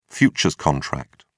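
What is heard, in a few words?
"futures contract", British English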